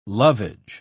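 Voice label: "lovage", British English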